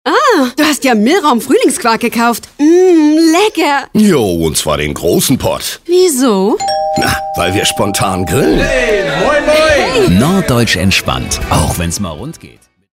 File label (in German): Werbung; Milram